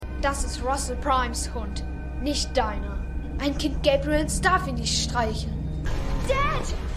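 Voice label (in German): Gläubiger Junge; The 100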